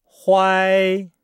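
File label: "huai", Chinese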